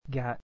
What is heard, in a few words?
"gya", kana